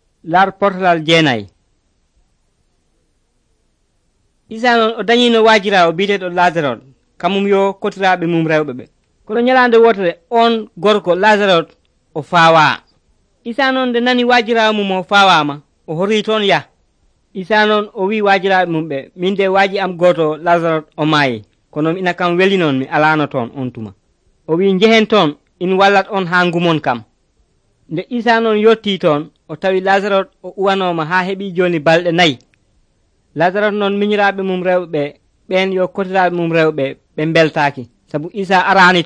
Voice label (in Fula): pulaar fulacunda, drama